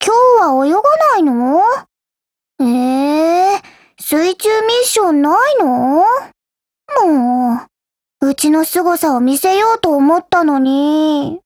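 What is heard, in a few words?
DIALOGUE, Japanese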